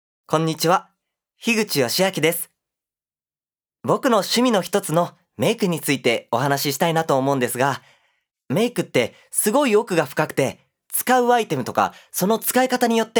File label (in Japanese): フリートーク